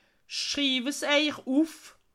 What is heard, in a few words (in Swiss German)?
"schriiwe", alsace